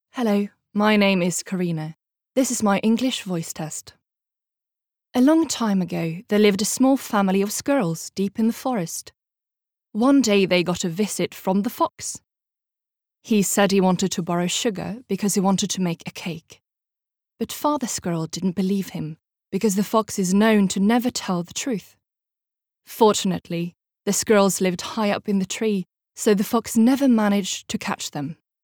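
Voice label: Norwegian English, norwegian female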